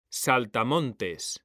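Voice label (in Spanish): "SALTAMONTES", Spanish; mekanta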